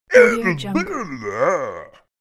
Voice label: caveman offended loudly; cartoon